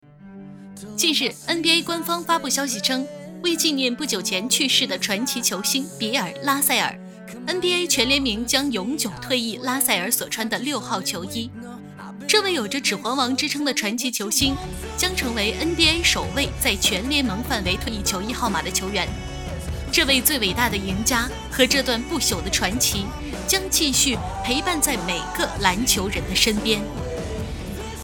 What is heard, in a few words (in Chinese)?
体育资讯活力女